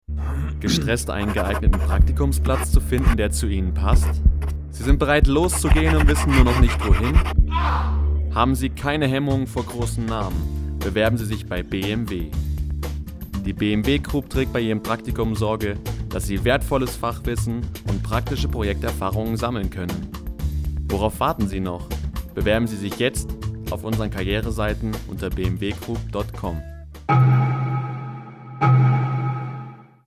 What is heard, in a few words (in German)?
Werbung